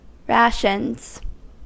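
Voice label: "rations", US English; pronunciations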